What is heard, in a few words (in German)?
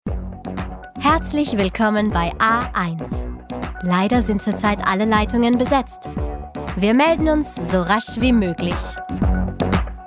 Telefonansage